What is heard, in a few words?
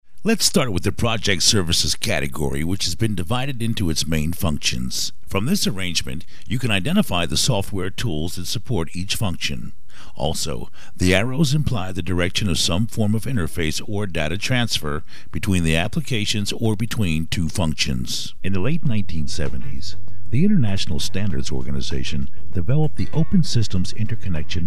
learning educational